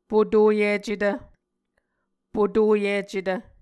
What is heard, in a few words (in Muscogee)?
"potoyicetv", Muscogee